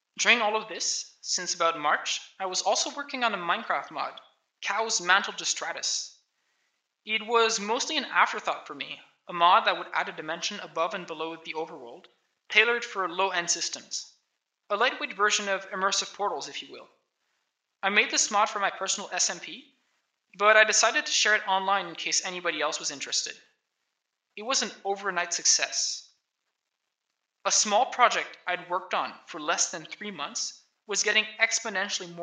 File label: voice, narration